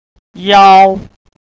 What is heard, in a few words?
"iao" Mandarin